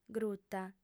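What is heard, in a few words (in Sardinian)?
"gruta", Sardinian, female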